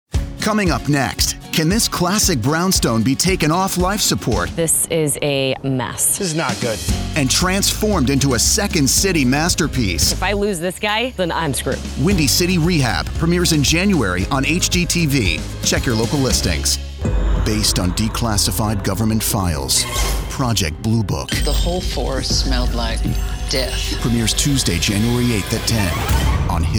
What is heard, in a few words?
Promo